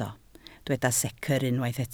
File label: "secr", Welsh